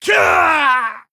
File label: Male Pandaren Death